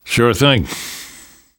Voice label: you are welcome, namvet, survivor voice; Left 4 Dead 2; left4dead2 dlc2

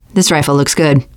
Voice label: take assault rifle, teen girl; player; survivor; voice

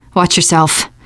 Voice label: reaction apprehensive, teen girl; player; survivor; voice

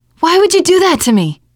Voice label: friendly fire, teengirl; player; survivor; voice

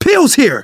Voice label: spot pills, survivor voice; manager; Left 4 Dead 2; left4dead2 dlc2

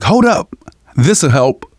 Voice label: heal other, manager, survivor, voice; Left 4 Dead 2; left4dead2 dlc2

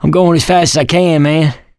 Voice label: going to die, survivor voice mechanic

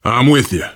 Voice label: im with you, voice, biker

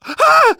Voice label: reaction startled, manager, survivor, voice; Left 4 Dead 2; left4dead2 dlc2

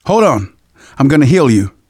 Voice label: heal other, manager, survivor, voice; Left 4 Dead 2; left4dead2 dlc3

